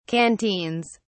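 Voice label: "canteens", British English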